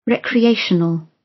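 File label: "recreational", British; Life, leisure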